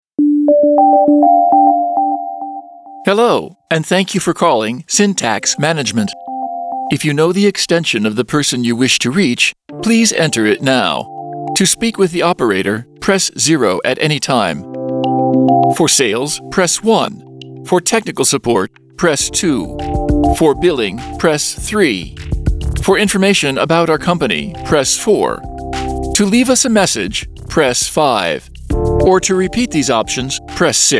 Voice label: American Male Voice, On Hold Messaging, Accent: General North American, no dicernable regional; Tone / Style: Friendly